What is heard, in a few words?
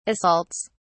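"assaults", British English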